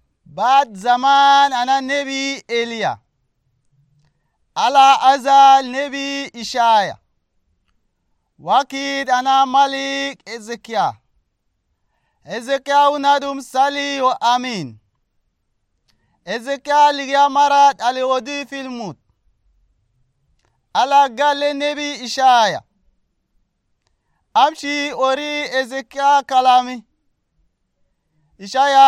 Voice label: Arabic Chadian; Dieu est avec nous; Hezekiah Healed